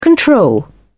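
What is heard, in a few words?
"control", British English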